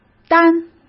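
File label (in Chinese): "单", Mandarin Chinese; hsk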